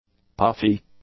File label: "puffy", english; adjectives